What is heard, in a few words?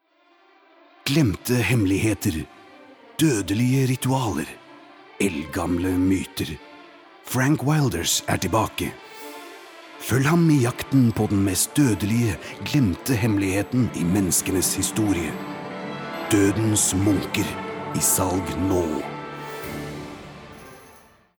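Norwegian movie trailer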